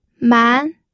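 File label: "man", second tone, pinyin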